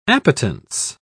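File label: "appetence", British English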